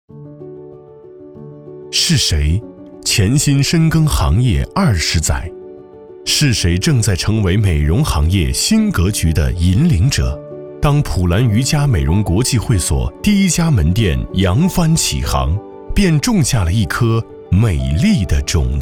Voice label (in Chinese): 男 阳光温情风格 瑜伽; 普兰瑜伽